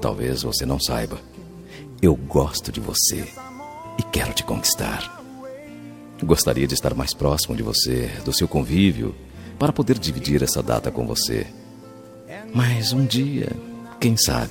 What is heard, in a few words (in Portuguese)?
dia dos namorados paquera masculina; dia dos namorados conquista voz masculina